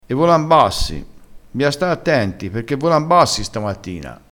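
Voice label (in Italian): "e volan bassi", dialettale crespinese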